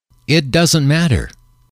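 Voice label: "it doesn't matter", English, male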